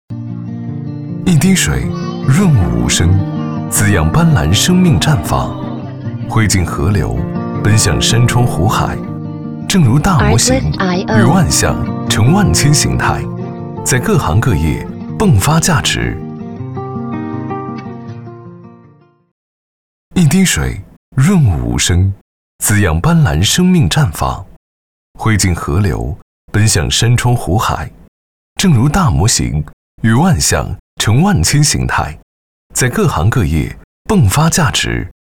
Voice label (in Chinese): 男, 广告, 手机银行